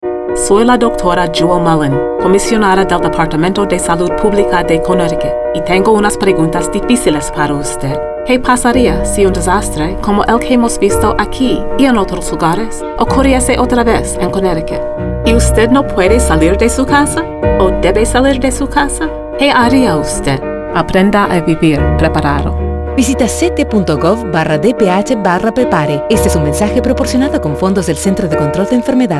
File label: spanish; live prepared 2011; dph